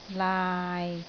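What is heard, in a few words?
"laai6", Cantonese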